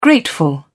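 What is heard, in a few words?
"grateful", British English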